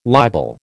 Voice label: "libel", American